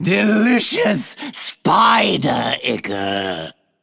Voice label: Male Undead Play